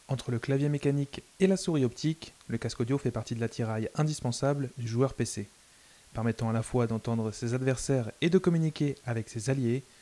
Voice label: STREAMMIXER SM7DB noamp ideal

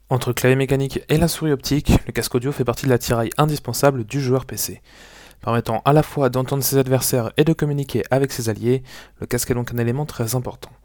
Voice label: corsair hs65 surround soundtest

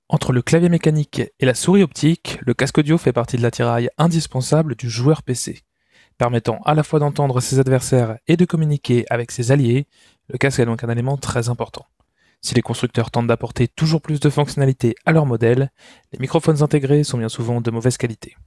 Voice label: razer blackshark v2 hyperspeed soundtest

